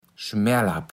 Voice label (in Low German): "Schmeerlapp", platt